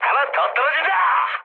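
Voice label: Korean player killed enemy mood high, speech; War Thunder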